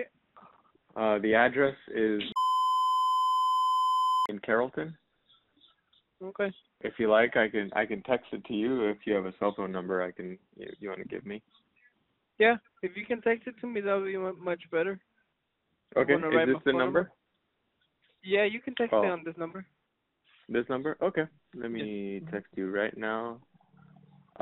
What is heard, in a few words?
Christmas Light Install Call